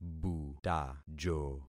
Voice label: "butajo", concat syllables; Affixing experiment

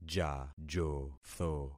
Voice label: "jajotho", concat syllables; Affixing experiment

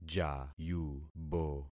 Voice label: "jayubo", concat syllables; Affixing experiment

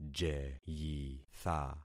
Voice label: "jeyitha", concat syllables; Affixing experiment

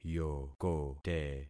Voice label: "yokote", concat syllables; Affixing experiment

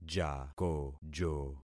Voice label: "jakojo", concat syllables; Affixing experiment

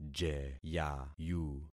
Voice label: "jeyayu", concat syllables; Affixing experiment

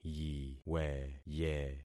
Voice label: "yiweye", concat syllables; Affixing experiment

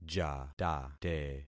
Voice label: "jatate", concat syllables; Affixing experiment

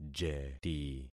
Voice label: "jeti", concat syllables; Affixing experiment

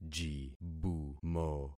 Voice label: "jibumo", concat syllables; Affixing experiment

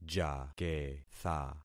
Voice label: "jaketha", concat syllables; Affixing experiment

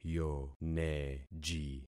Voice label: "yoneji", concat syllables; Affixing experiment

